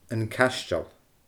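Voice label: "An Caisteal", Scottish Gaelic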